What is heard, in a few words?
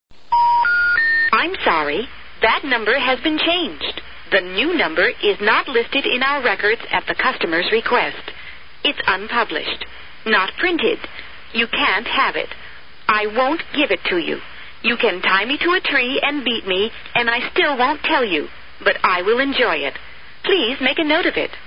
Ans machine